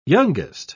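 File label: "youngest", British English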